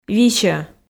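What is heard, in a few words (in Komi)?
"висьӧ", Komi, female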